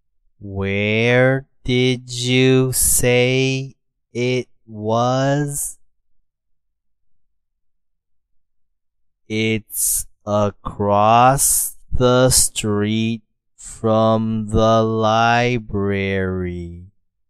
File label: slow